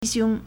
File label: "xiong1", Chinese